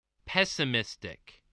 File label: "pessimistic", voice, English